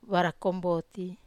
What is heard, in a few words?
"vara komboti", Wamesa